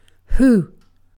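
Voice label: "who", British English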